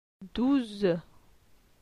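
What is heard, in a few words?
"Douze", French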